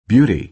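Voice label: "beauty", American English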